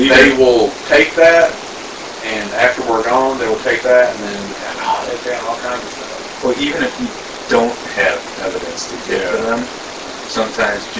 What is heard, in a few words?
Daughters Bedroom Unexplained whisper (Get out) AMPLIFIED